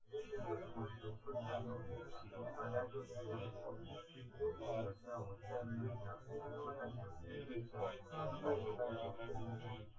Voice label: test male synthconv

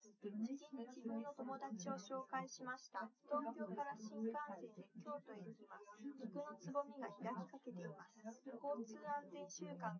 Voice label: test female liverec 250ms 5cm src; SiSEC08; SiSEC underdetermined

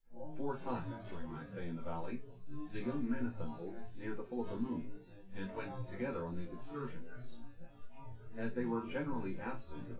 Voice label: male synth conv 5cm; SASSEC07

